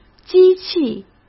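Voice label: "机器", Mandarin Chinese; hsk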